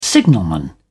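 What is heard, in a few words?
"signalman", English, British English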